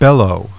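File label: "bellow", English; Heroic Tales: Theseus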